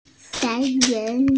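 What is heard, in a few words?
"bai yun" Chinese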